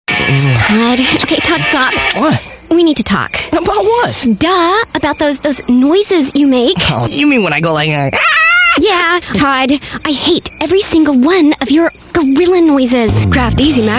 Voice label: commercial